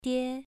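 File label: "die" Mandarin, second tone, pronunciation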